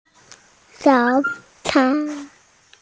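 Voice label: "breakfast", Mandarin Chinese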